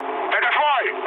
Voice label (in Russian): player friendly fire, mood low, speech ru